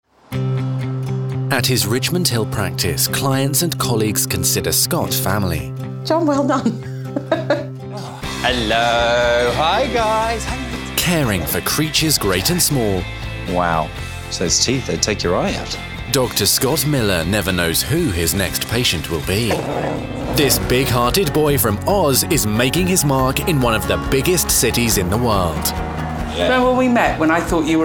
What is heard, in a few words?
Narration